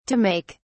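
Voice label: "to make", British English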